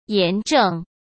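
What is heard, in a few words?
"炎症", Mandarin Chinese; chinese flashcards; hsk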